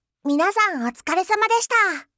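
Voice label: "minasan otsukaresama deshita", Japanese; aisatsu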